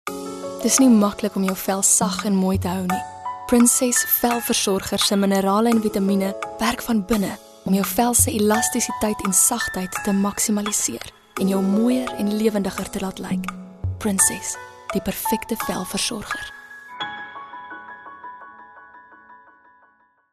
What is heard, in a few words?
Soft Sell Afr